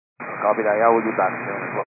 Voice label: 122 925MHz, scanner radio